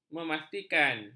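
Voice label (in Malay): "memastikan", Malay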